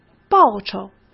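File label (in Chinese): "报酬", Mandarin Chinese; chinese-flashcards; hsk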